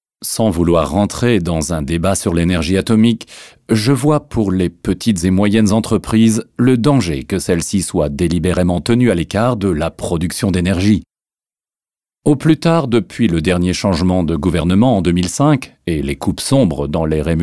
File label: voice-over